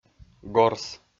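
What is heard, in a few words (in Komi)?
"горс", Komi, male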